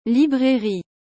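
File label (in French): "librairie", French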